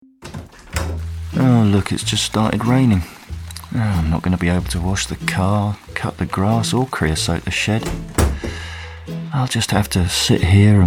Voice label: natural

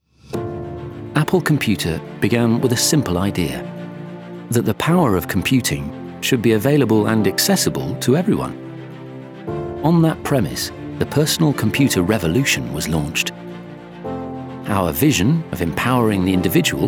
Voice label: VO Reel; Apple

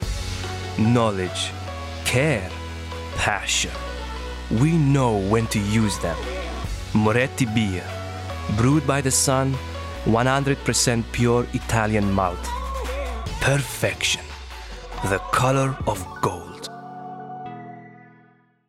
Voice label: Italian Accent

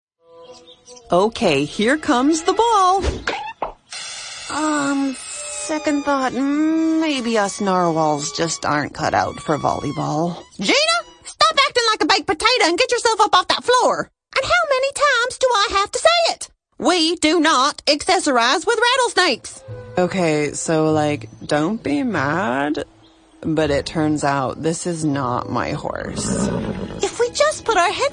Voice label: Animation

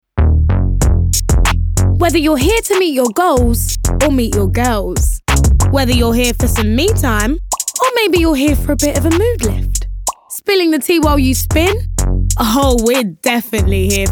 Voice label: Ad; Pure Gym

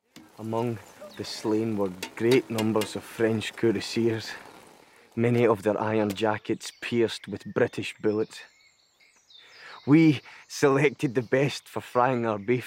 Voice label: Drama Docu

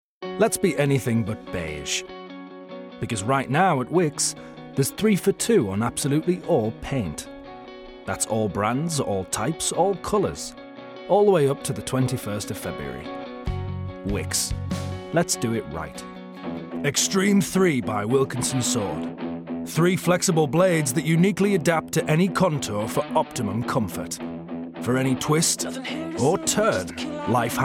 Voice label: commercials

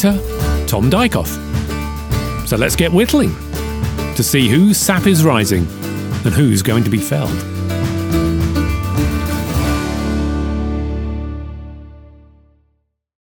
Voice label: Woodwork Documentary

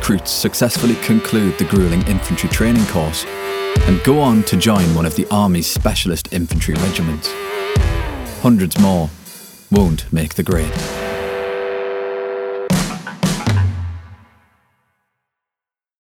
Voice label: Soldier Doc; LNER

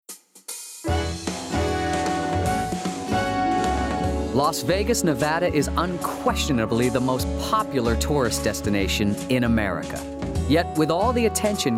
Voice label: narration

